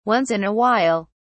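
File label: "Once in a while", British English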